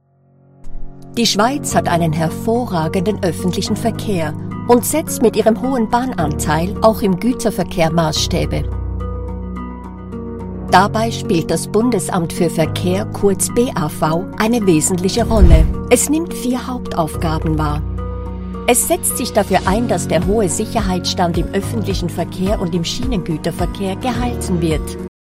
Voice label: german narration